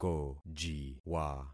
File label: "kojiwa", concat syllables; Affixing experiment